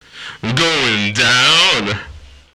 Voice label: male fx vox, vocal house, g#; PS-28 Vocal House Samples